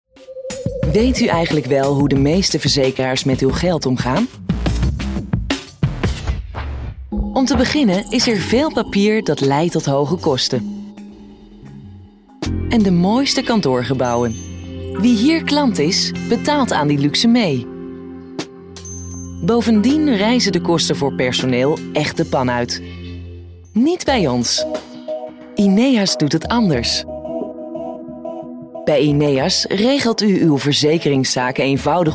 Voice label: dutch female corporate, Authoritative